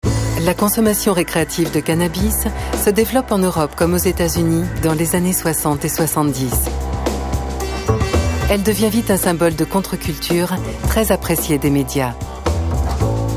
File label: french documentaries